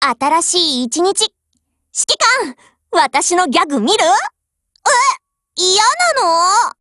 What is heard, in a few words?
HELLO JP; GF Alarm; M21